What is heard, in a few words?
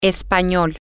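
language, Spanish, female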